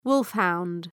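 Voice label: "wolfhound", English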